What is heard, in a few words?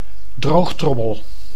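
"droogtrommel", Dutch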